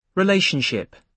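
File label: "relationship", British English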